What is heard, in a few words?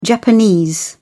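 "japanese", British English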